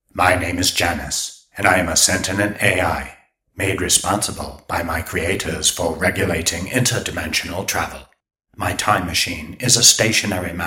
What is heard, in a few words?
HAL JANUS Voice, Robot Voice